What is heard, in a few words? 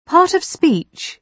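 "part of speech", British English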